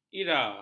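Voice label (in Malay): "ira", Malay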